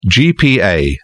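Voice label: "gpa", English, British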